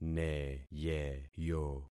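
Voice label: "neyeyo", concatenated syllables; Affixing experiment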